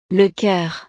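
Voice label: "le coeur", French; partes del cuerpo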